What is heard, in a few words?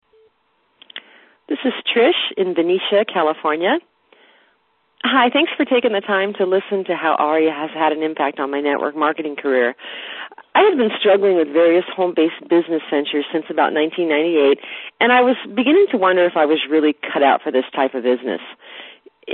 Testimonial